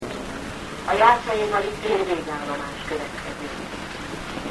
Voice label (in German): Haltestellenansagen, Ungarn; Budapest (Straßenbahn), Jászai Mári tér; A Jászai Mári tér végallomás következik.; Es folgt der Mári-Jászai-Platz, Endstation.